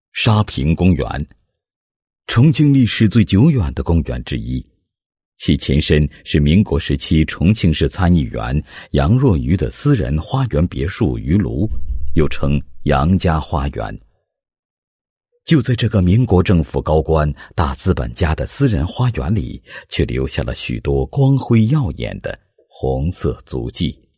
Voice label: 男, 纪录片; 职业妇女托儿所

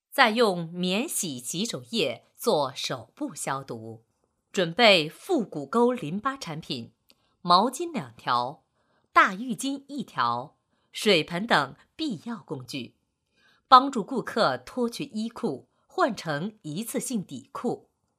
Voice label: 女 课件 美容美体; 美容美体胸部

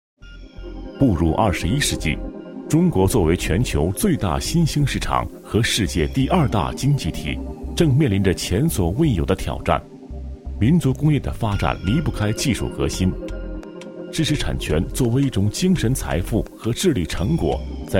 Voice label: 男 专题 知识产权 科技感, 国语男声配音

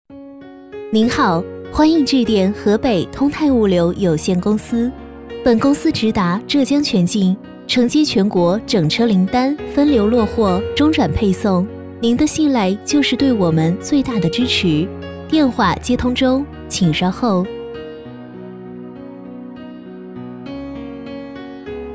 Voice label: 女, 彩铃; 河北通泰

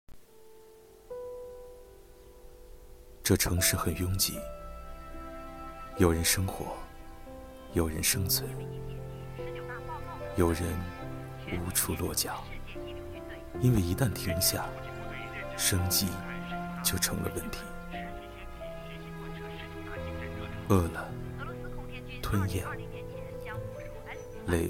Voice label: 男, 公益广告; 3立方

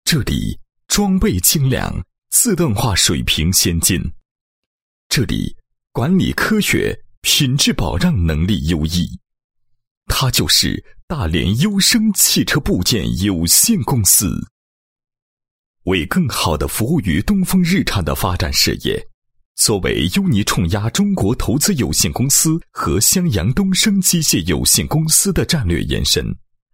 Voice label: 男 企业 宣传片文案; 优升开业式宣传片文案

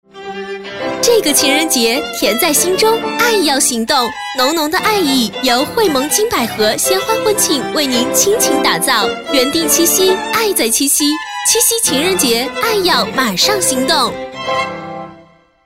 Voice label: 女 广告 情人节, 国语女声配音

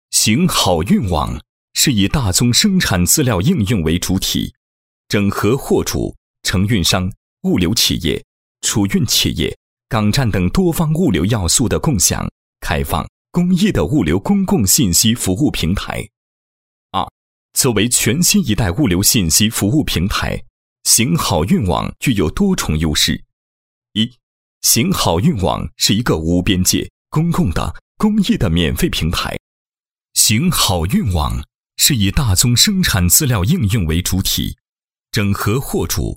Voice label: 男, 企业宣传 配音; 行好运网

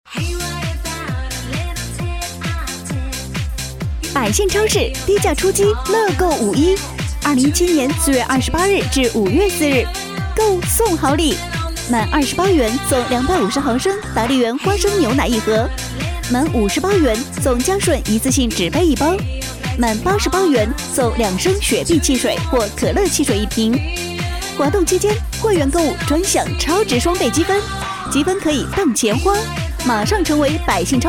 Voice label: 女 广告 超市促销 欢快激情, 国语女声配音

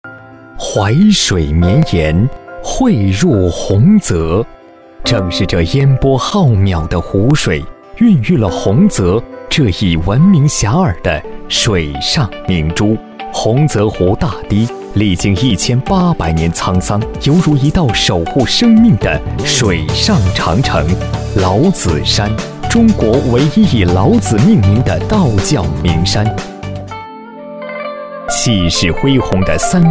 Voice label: 男, 宣传片, 旅游, 洪泽湖

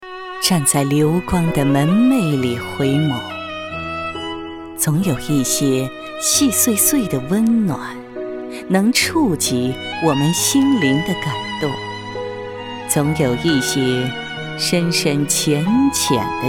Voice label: 女 诗歌 青春的掠影 配音

